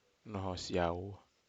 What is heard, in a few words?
Aneityum large banana